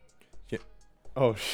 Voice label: recordin Mic Input; flstudio; Vocals